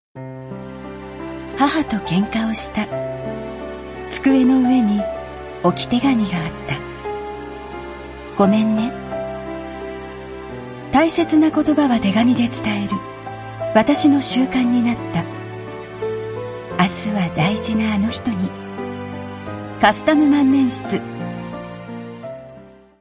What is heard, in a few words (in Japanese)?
CM 語りしっとりゆったり; 「カスタム万年筆」